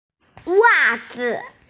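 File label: "wa4zi5", Mandarin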